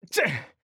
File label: MALE damage